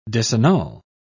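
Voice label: "disannul", British English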